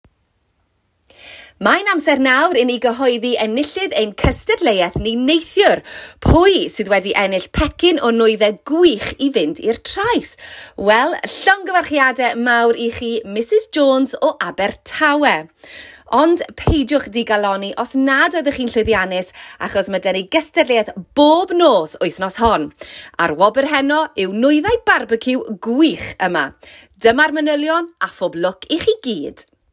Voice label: Welsh version